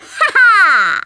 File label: FATALITY, Speech, Polish; Worms Armageddon